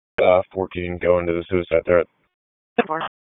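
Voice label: Raleigh Police Southeast District Dispatch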